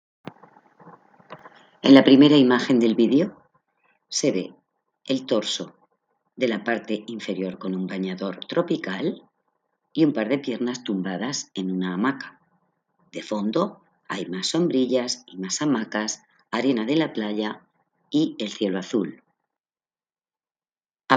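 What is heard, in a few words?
count to five in french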